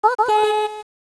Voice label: yes, voice commands; svencoop; Miku